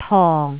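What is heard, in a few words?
"tong4", Cantonese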